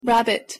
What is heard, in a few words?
pronunciation en "rabbit"